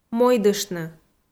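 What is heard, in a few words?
"мойдыштны" Komi, female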